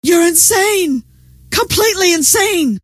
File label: friendly fire, scientist female